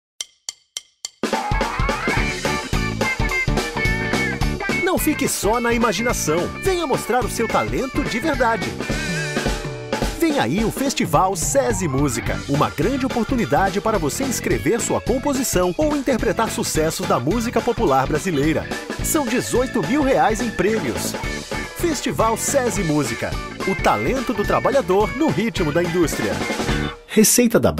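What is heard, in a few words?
CONVERSATIONAL PORTUGUESE VOICE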